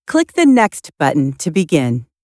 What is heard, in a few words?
transition spot